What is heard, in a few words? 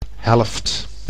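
"helft", Dutch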